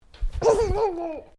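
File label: MALE 2 year old PLAYFUL; Talking Sound Effects; Talking (Sound Effects:Voice)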